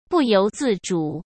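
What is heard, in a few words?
"不由自主", Mandarin Chinese; chinese-flashcards; hsk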